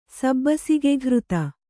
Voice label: "ಸಬ್ಬಸಿಗೆಘೃತ", Kannada